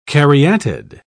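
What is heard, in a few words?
"caryatid", English, British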